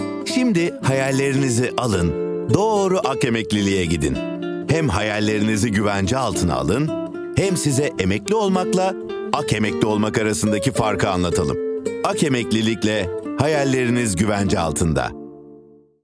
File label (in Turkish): enerjik eprili, seslendirme